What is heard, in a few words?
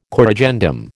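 "corrigendum", American English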